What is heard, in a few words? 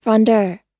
"frondeur", pronounce